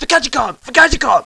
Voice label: viet helicopter

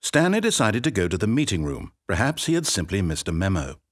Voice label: office, narrator

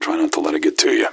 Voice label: answer, gasmask; scmod

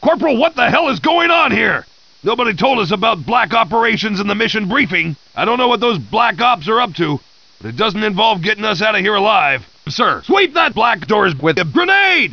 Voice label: lab grunt

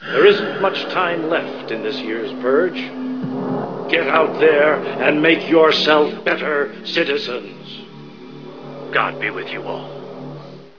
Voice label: purge announc

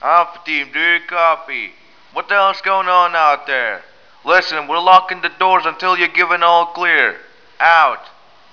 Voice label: radio; talking; terrorists; grnbase

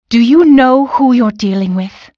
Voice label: warn, elven female

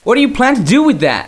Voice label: scared

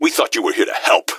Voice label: here to help, gasmask; scmod

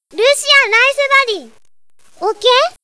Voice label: chat

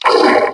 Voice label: metrocop zombie pain; scmod

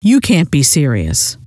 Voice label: cant be serious, scientist female

